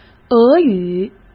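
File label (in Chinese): "俄语", Mandarin Chinese; hsk; chinese-flashcards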